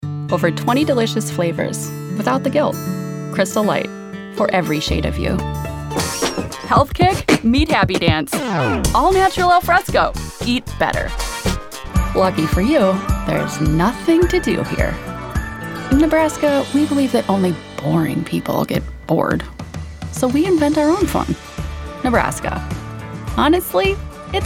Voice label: Commercial